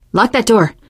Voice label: close the door, teen girl, survivor voice